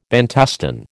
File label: "Bantustan", American